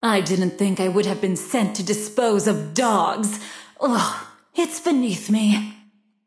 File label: taunt directed; smite; kali; fenrir